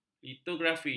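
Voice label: "litografi", Malay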